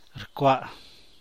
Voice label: "rcuch", San Mateo Macuilxochitl Zapotec

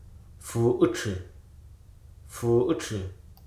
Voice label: "hide", Hruso

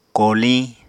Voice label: "koliñ", Apatani

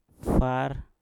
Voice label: "far", Mpur; darah

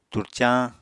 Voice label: "turcañ", Apatani

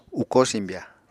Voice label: "ukó simbya", Apatani

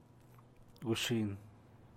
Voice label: night, Zapotec; gushin; (Spanish) noche

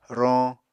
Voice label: "roñ", Apatani

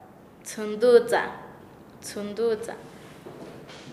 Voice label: Nasa Yuwe; gotear; paez

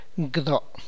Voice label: "church", tlacochahuaya

